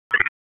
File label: Police Northwest District Tac 1